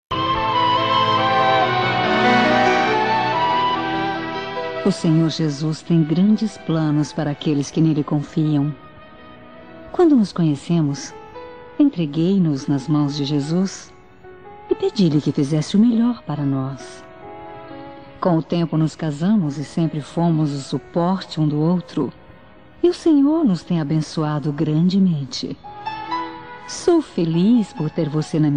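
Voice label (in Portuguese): Evangélica Aniversário de Casamento, female, telemensagens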